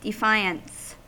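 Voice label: "defiance", US English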